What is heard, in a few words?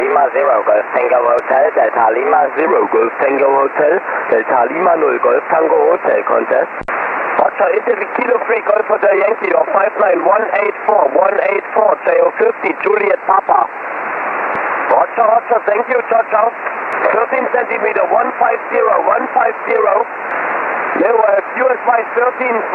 1296 SSB; DL0GTH; IARU 2014